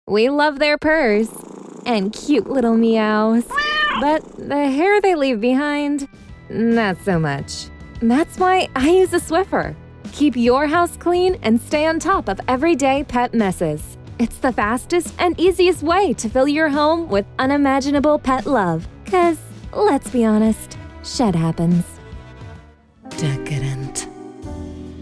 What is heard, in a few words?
commercial demo